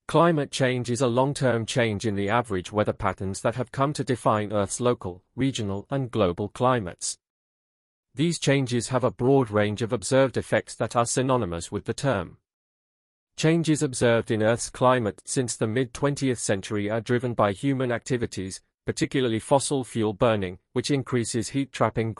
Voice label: Thomas UK